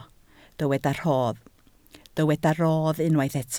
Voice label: "rodd", Welsh